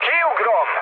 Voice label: bailout, speech hun; War Thunder